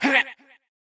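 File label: soldier voice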